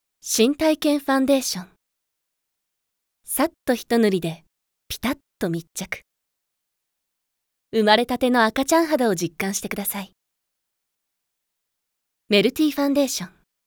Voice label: CM Narration

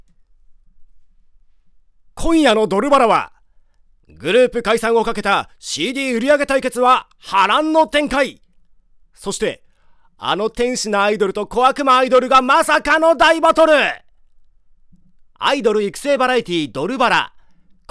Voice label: Narration

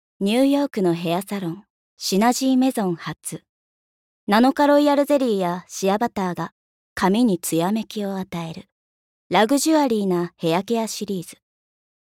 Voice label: Narration CM

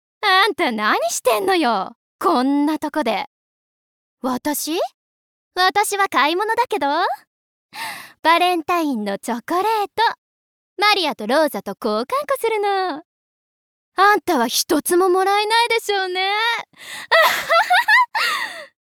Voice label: Girl Dub

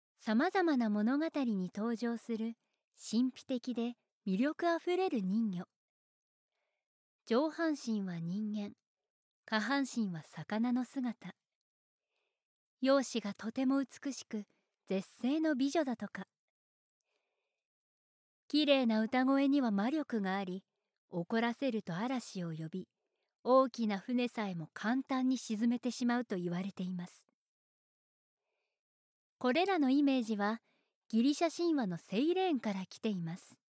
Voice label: Narration

